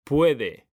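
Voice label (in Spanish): "PUEDE", Spanish; mekanta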